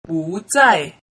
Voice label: "buzai", mandarin